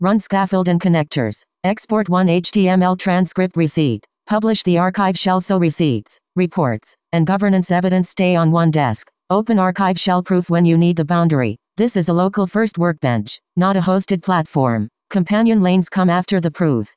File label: promo landscape voiceover